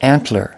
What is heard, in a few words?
"antler", us